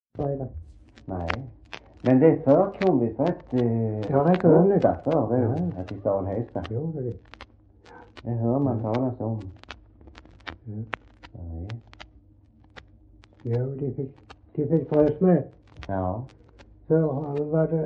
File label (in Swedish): Röstånga SK, dialekter; Folkminnen